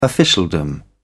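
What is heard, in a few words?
"officialdom", British English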